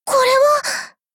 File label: shock